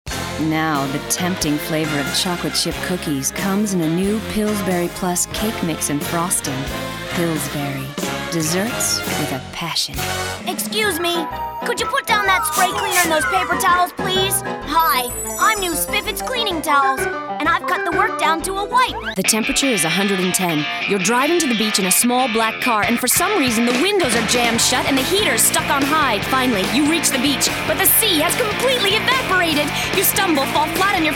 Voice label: Commercial